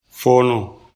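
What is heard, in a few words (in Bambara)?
"foono", Bambara; action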